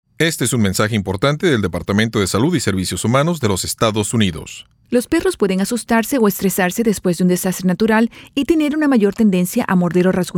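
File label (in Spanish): Spanish PSA; CDC; Safe Around Dogs; disasters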